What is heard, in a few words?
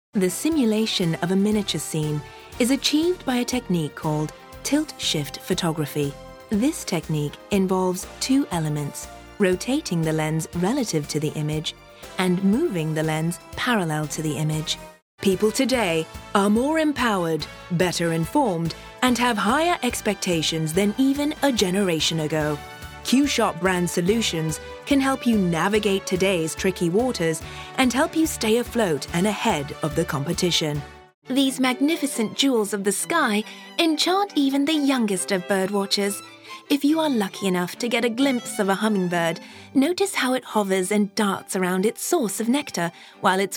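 British, narration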